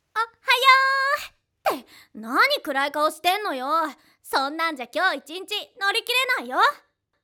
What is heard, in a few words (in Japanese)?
ボイスサンプル 女の子